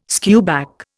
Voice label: "skewback", Indian